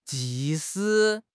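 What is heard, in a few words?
"ji2 shi3", Chinese; 汉语口语新时代-初级下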